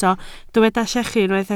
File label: "llechu", welsh